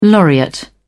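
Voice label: "laureate", British English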